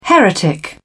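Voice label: "heretic", British English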